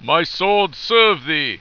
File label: my swords serve thee, heroic